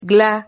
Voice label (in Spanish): "gla", Silabas